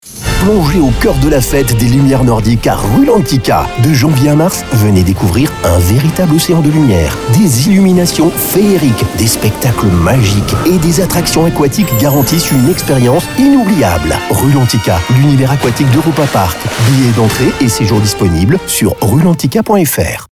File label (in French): FR; Französischer Werbesprecher; french voice-over talent male; RULANTICA 2025 Lichterfest